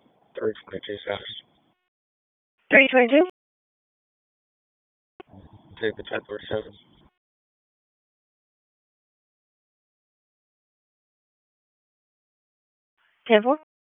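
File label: Police District Dispatch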